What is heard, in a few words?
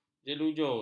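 "jelujur", Malay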